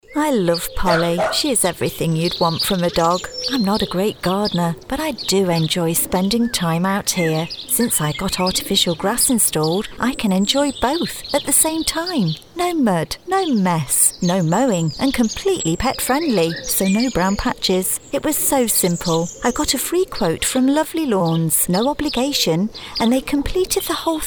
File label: Commercial Conversational